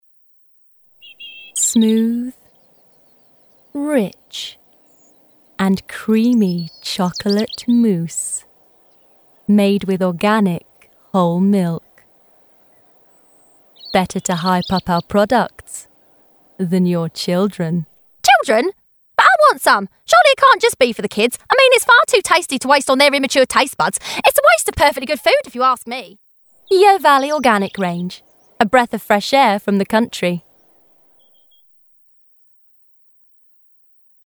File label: Comedy